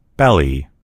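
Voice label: "belly", American English; gcompris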